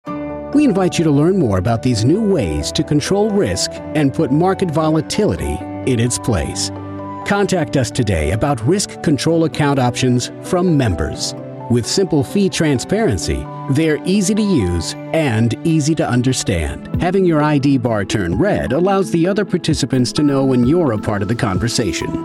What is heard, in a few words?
Corporate Narration Friendly Authentic